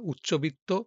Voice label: Male